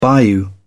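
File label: "bayou", English, British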